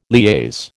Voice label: "liaise", American

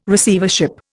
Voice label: "Receivership", Indian

